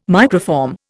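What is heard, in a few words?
"microform", English, Indian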